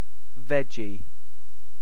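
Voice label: "veggie", British English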